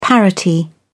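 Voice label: "parity", British English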